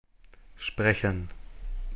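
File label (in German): "sprechen", German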